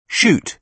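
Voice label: "shoot", British English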